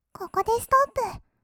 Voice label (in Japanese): ここでストップ, kawaii voice; ASMR; 色々なワンショット